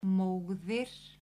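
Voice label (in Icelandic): "móðir", Icelandic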